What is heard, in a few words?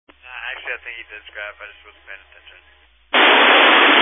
Family Radio Service (FRS)